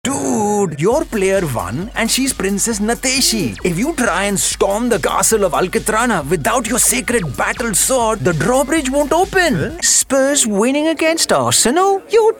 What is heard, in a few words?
male english indian and spanish castilian multiple accents animation